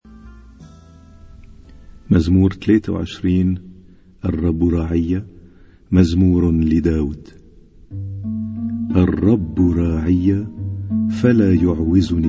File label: Arabic Psalm Zaboor